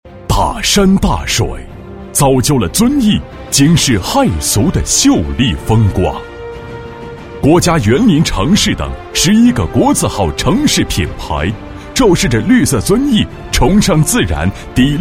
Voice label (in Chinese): 男声 大气 专题 宣传